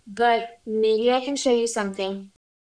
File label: Voice Acting es